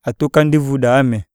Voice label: breath, iyasa